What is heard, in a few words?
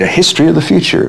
LoFi Phrases, LoFi Vocals; Vengeance Samples